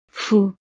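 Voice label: "fou", French; estados animo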